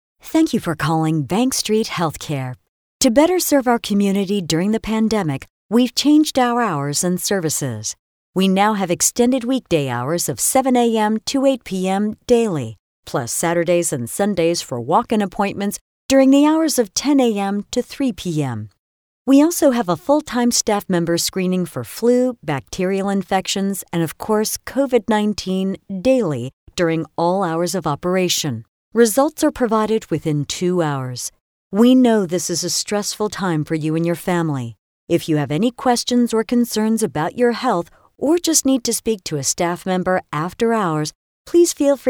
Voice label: Office Emergency Sample Greeting